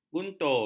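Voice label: "guntur", Malay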